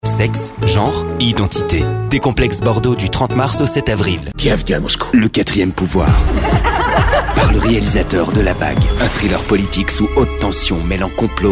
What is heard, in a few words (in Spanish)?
Masculino